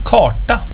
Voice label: "karta", swedish